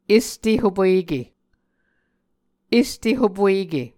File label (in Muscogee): "este hopueke", Muscogee